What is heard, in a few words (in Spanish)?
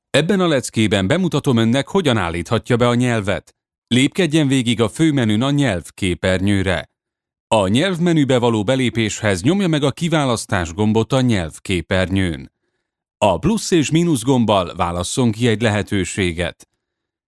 Masculino